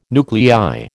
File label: "nuclei", American